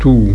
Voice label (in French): "toux"